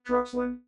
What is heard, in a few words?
Robot Gibberish; BitSpeak